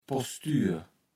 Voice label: "Postür", Platt

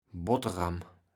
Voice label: "Botteram", kaevels platt

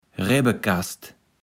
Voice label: "Rebbekast", Platt

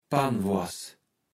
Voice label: "Pannwoars", Platt

